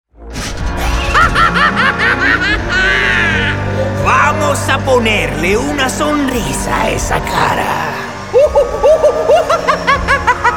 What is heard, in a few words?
Animation Spanish